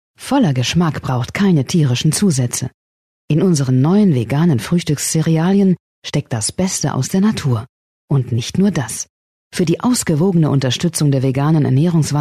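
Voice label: German, female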